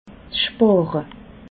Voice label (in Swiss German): "spare", alsace